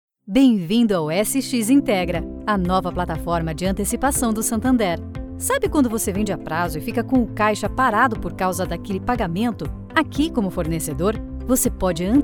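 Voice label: Brazilian Portuguese, female, advertisement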